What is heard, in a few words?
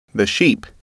"sheep", English